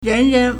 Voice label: "ren2 ren2", Chinese

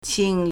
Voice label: "qing3", Chinese

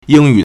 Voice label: "ying1 yu3", Chinese

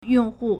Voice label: "yong4 hu4", Chinese

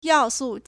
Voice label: "yao4su4", Chinese

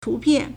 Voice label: "tu2pian4", Chinese

